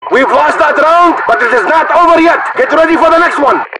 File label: multiplayer opfor voices; Call of Duty 4 Modern Warfare